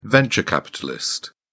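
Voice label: "venture capitalist", British English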